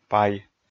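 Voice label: "пай", Komi, male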